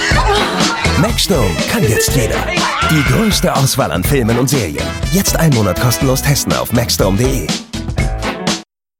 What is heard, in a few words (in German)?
Spots OFF; maxdome